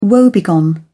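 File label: "woebegone", English, British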